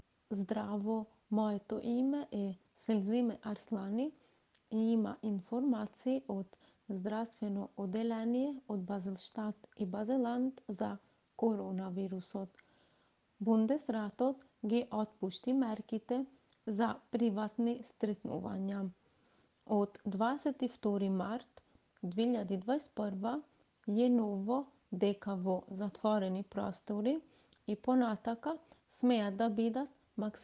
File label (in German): mazedonisch; kantonsarztlicher dienst; medizinische dienste; informationen in verschiedenen sprachen